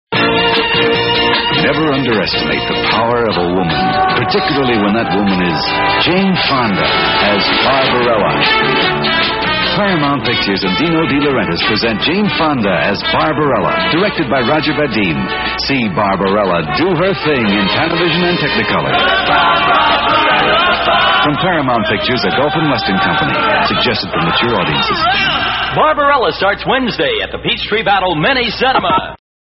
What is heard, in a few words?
movie ad, radio spots; barbarella; psychedelic promos and radio spots